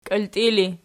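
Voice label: "kiiltii" ergative, Archi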